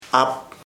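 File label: fox lion cub cachorro, Ishir Chamacoco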